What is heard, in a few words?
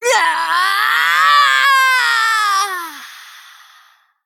Female Dryad Death